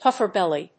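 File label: "pufferbelly", English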